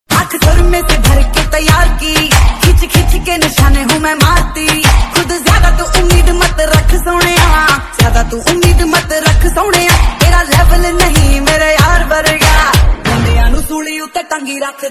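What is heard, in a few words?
Illegal weapon female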